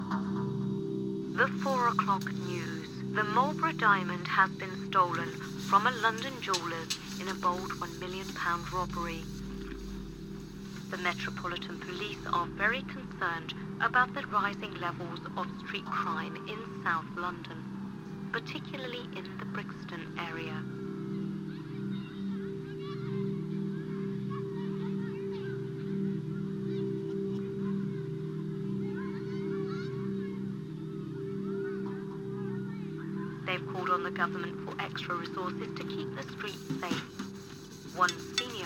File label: Newsreader; Brixton Rocks